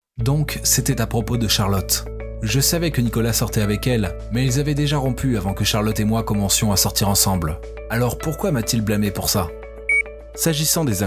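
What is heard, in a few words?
French, male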